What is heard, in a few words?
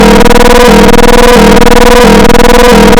neptune dec voice